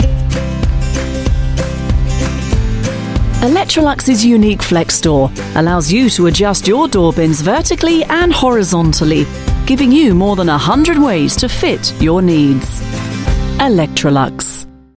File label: UK English, Female; Electrolux lexStor